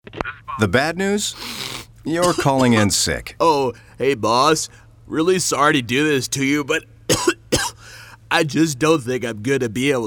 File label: Spot; ExpressJet